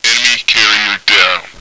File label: radio, male; action-quake2